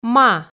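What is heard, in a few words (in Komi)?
"ма", Komi, female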